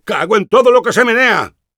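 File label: negative vocalization, Spanish; Engineer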